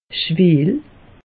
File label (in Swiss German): "schweel", alsace; meteo